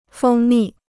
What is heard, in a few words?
"蜂蜜", Chinese